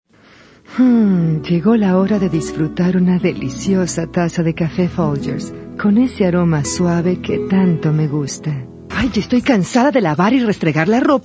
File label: Latin